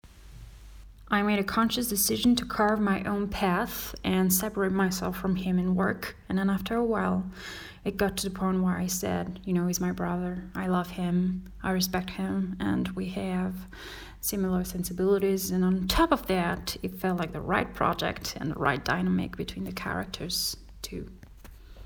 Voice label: American accent in English